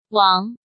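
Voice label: "Wáng", Chinese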